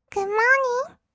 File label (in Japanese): グッモーニン, kawaii voice; 挨拶